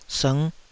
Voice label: "sngh5", Taiwanese syllable